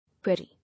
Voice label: "Weary", English